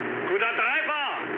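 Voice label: German bomb success mood low, speech; War Thunder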